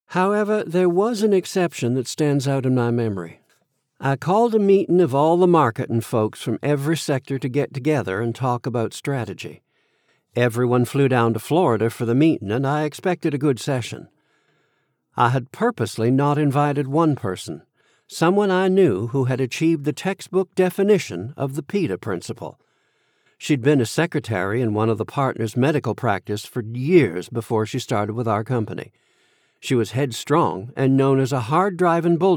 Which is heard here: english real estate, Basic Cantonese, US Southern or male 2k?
US Southern